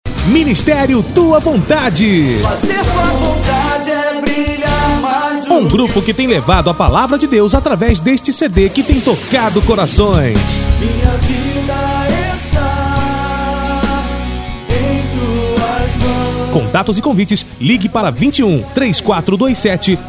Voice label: apresentacao comercial

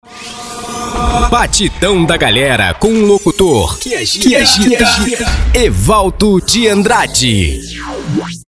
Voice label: vinheta batidao da galera com locutor que agita galera; com efeito

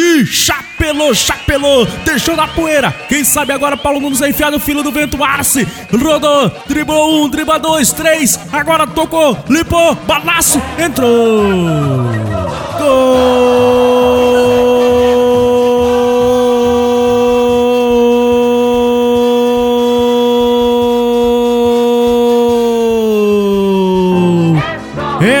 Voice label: locutor jogo palmeira e flamengo

